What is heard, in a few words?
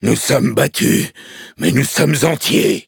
defeat, fr